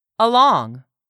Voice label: "ALONG", english; 3000 words

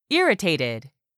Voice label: "IRRITATED", english; 3000 words

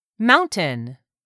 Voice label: "MOUNTAIN", english; 3000 words

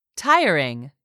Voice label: "TIRING", english; 3000 words

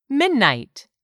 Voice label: "midnight", English; 3000 words

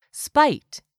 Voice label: "SPITE", english; 3000 words

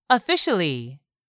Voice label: "officially", English; 3000 words

